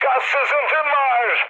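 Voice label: Turkish damaged water, mood high, speech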